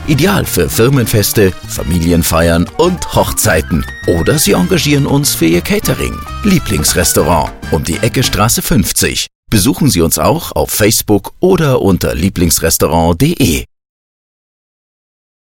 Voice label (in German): Gastro Restaurant Serioes